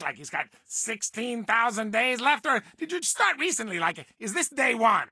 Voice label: English Voice